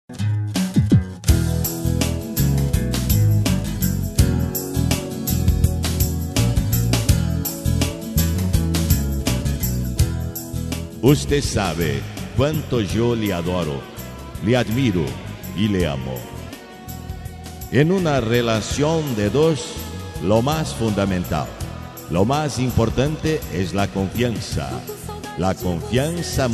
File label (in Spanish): No Sea Celoso Masculino, Espanhol; Variadas em Espanhol